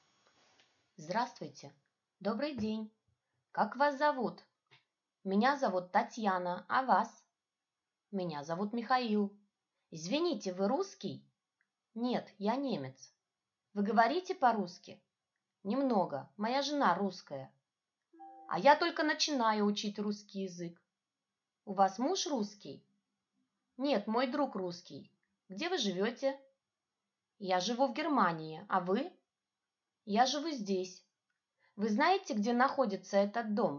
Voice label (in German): dialog auf russisch